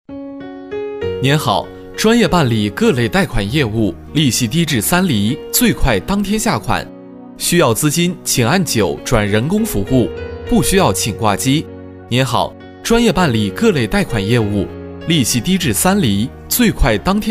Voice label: 贷款业务彩铃 男 年轻亲切

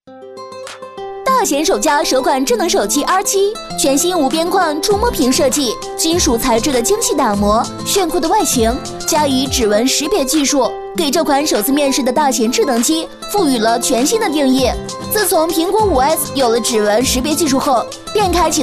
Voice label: 幽默诙谐; R7智能手机; 女; 飞碟说

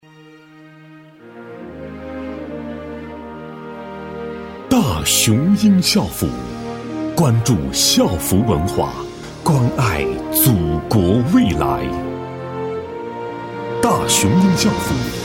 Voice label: 专题 男 叙述; 校服; 大雄鹰校服

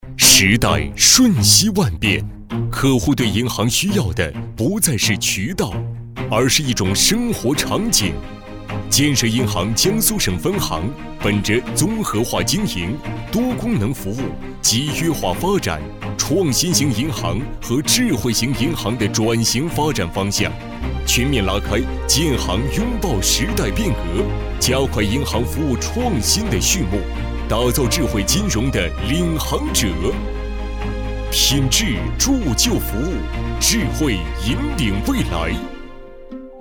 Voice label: 专题 大气节奏; 银行创新

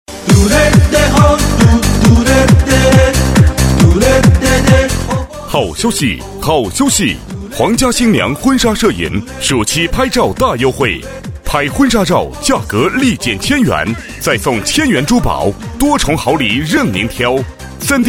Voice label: 促销 男 激情; 皇家新娘婚纱摄影

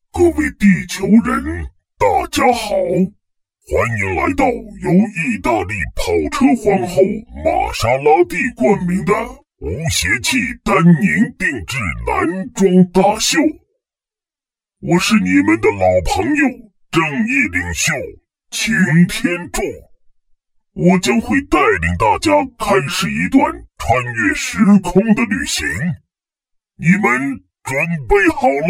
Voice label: 【模仿】擎天柱男

